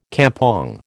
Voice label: "kampong", American